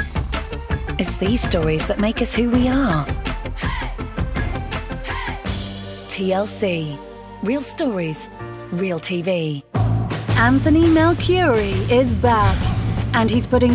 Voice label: Promo Voicereel